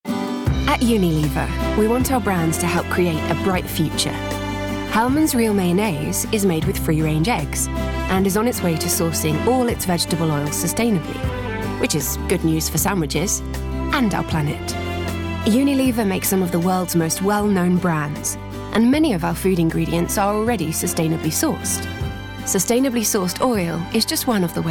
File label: Commercial; Unilever